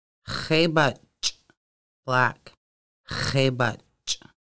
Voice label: Black, conversational Lushootseed